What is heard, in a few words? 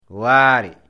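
"waare", Fula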